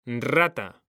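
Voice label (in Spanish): "rata", male; mekanta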